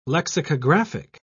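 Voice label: "lexicographic", British English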